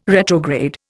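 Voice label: "retrograde", Indian English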